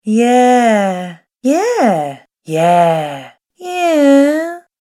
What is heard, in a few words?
Yeahs Female Voice Collection; Recorded Voice Sound Effects